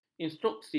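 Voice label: "instruksi", Malay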